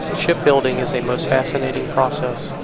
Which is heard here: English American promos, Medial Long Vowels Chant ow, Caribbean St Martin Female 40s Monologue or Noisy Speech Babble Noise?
Noisy Speech Babble Noise